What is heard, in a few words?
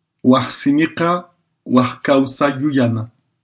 quechan